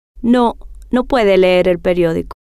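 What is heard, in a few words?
no Puede Leer Periodico, Spanish, female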